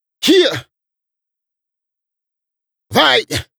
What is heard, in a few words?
Rap Do It Adlip J, vocal